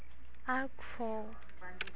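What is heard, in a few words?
"alcoholic", Japanese female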